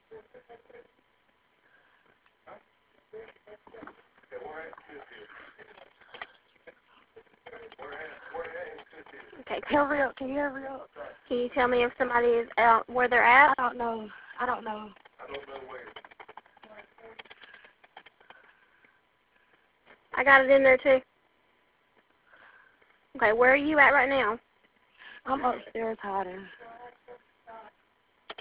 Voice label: Smithfield 911 Call